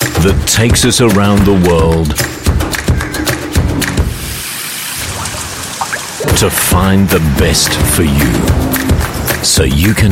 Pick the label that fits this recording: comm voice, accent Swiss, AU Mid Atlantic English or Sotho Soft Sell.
AU Mid Atlantic English